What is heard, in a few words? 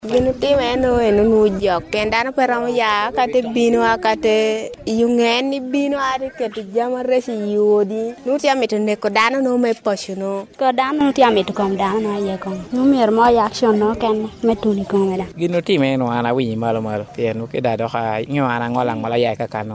vox pop